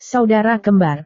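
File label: "saudara kembar", Indonesian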